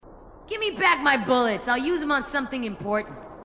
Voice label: female marine